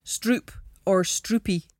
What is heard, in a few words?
"stroop or stroopie", Cromarty; [strrOOP or strrOO-pee]; well, a; Word